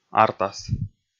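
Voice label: "артас", Komi, male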